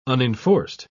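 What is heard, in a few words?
"unenforced", British English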